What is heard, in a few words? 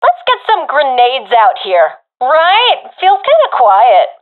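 request grenade, professional female; vo; Counter Strike Model Pack; CS:GO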